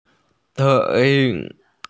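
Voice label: "dei3", Mandarin Chinese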